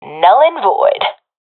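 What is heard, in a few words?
i killed enemy, professional female; Counter Strike Model Pack; CS:GO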